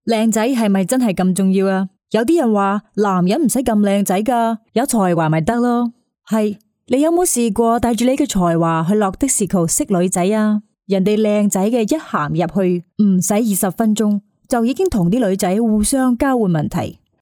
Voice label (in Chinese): 英女 靓仔 粤语